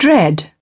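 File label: "dread", British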